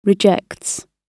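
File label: "rejects", British English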